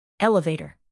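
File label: "elevator", English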